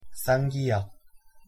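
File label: "ㄲ", Korean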